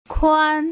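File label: "kuan", Putonghua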